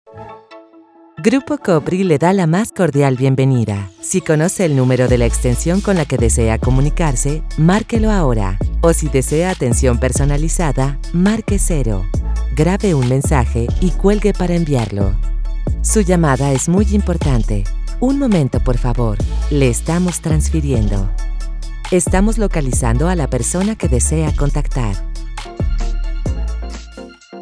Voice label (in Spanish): contestadoras